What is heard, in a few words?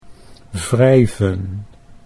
"wrijven", Dutch